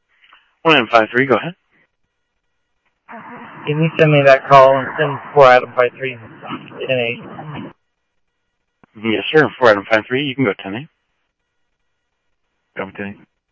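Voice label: Mesa Police Department, scanner audio trunk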